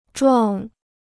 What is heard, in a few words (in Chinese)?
"撞", Chinese